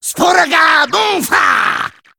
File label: Male Sporeling Attack